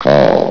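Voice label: "call", male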